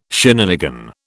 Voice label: "shenanigan", British